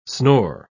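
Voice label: "snore", English pronunciation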